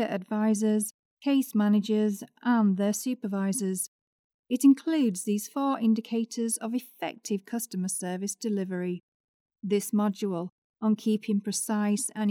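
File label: E-learning Government Training RP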